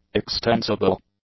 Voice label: "extensible", english; adjectives